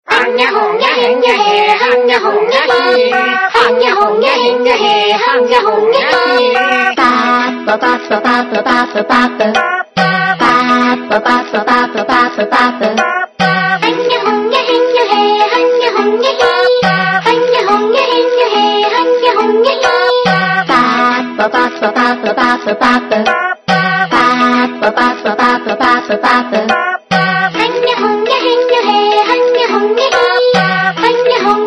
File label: girl